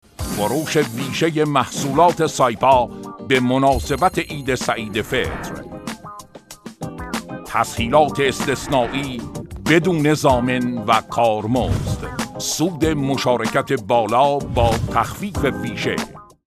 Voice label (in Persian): نمونه صدای آقای; آواگر